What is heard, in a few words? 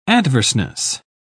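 "adverseness", British English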